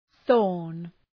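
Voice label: "thorn", English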